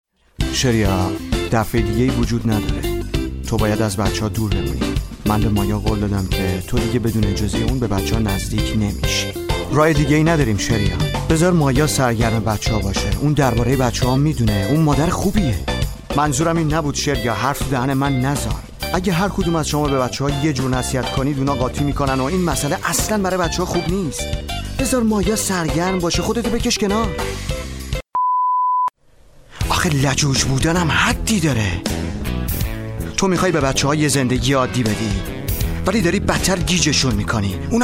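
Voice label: farsi male commercial; voice demos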